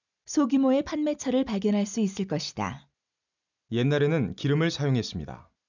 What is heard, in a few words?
Korean, f1, m2